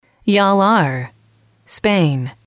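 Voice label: yall are, female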